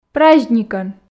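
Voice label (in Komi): "праздникӧн", Komi, female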